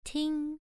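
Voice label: "ting" Mandarin Chinese, first tone, pronunciation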